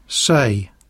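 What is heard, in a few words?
"say", English; poitiers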